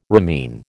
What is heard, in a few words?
"ramin", American